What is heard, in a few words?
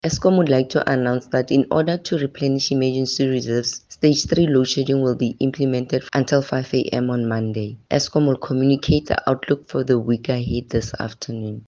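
English; Stage 3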